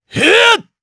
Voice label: Attack, jp; voices; heroes; Lusikiel; kings raid